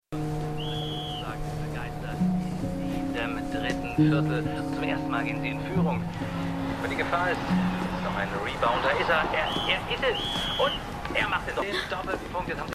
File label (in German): TV Basketballkommentator; New Amsterdam